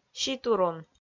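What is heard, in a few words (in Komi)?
"ситурун", Komi, female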